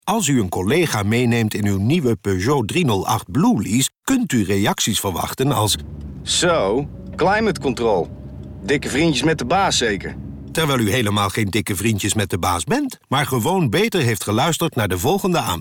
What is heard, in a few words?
commercials climate; peugeot